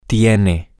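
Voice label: you have formal, Spanish, male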